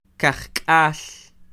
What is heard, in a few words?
"Kajkal", Quiché; ochenta; eighty; INALI; UTexas; Números